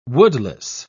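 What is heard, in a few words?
"woodless", British English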